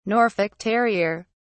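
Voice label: "norfolk terrier", British English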